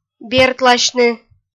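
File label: "бертласьны", Komi, female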